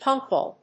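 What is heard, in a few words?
"punchball", English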